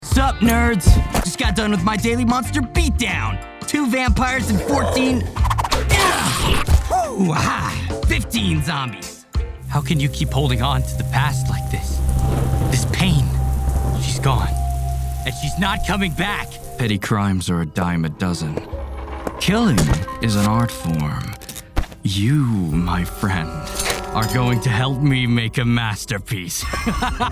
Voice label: Animation